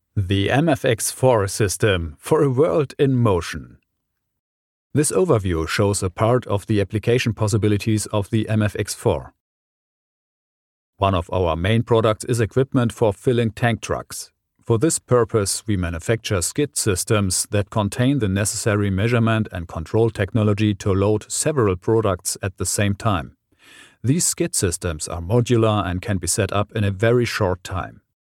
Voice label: beispiel imagetext englisch